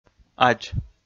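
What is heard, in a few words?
"адз", Komi, male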